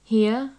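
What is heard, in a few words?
"hear", British English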